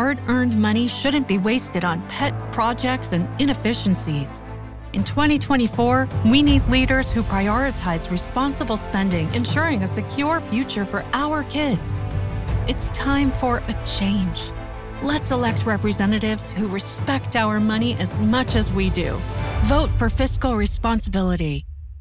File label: Democrat Attack Ad VO